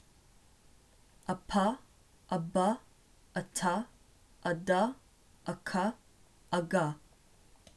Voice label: Eng a stop a; English